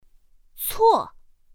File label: "cuò", pinyin; pinyin2; pinyinji